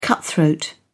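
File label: "cutthroat", British English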